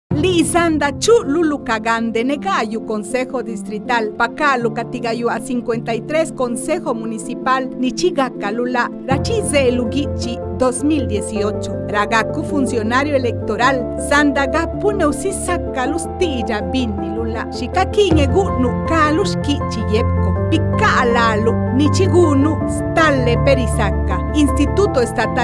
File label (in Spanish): Spot legua zapoteco